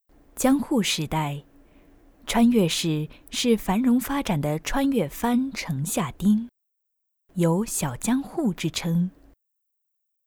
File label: foreigner